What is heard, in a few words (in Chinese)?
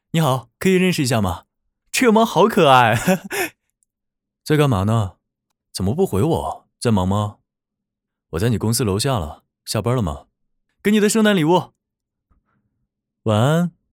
抖音情感号年轻男主